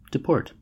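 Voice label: "deport", American English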